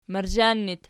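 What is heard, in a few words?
"marzhan" locative, Archi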